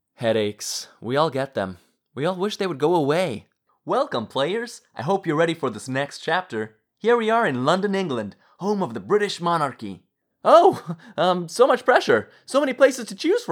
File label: commercial vo; voiceover